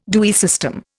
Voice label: "Dewey system", Indian